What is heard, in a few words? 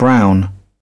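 "brown", British English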